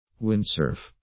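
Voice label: "windsurf", English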